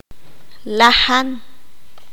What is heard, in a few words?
"mismo igual", Q'anjob'al